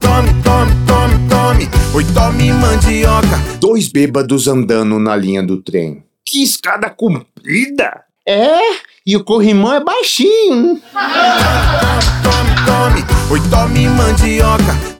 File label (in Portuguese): spot piada; rádio; rei da mandioca